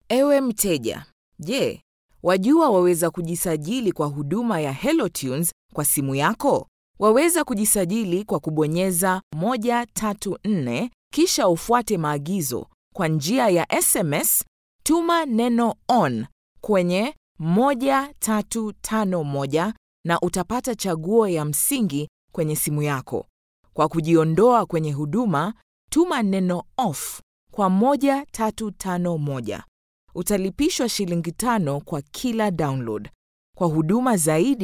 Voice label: Swahili F Narration; voice-over